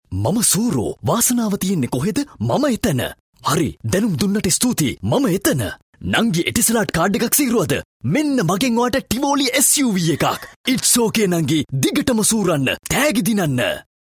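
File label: Sinhala male voice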